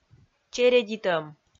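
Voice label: "чередитӧм", Komi, female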